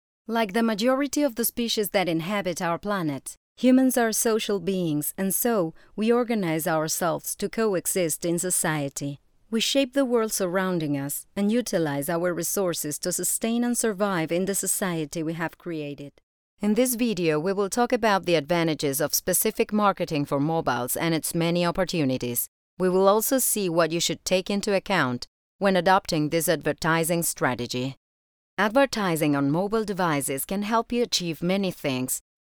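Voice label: eLearning Female Latina Accent, Clear, Conversational, Latina; Spanish (Latin and Castillian)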